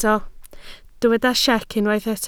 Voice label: "siec", Welsh

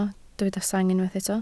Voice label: "sang", Welsh

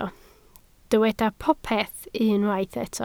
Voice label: "popeth", Welsh

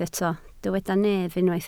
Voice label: "nef", Welsh

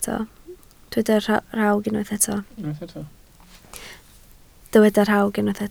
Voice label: "rhawg", Welsh

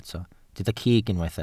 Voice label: "cig", welsh

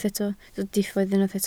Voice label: "diffodd", Welsh

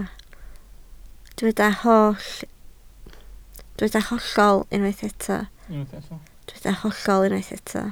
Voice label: "hollol", Welsh

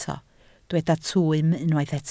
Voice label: "twym", Welsh

